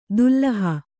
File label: "ದುಲ್ಲಹ", Kannada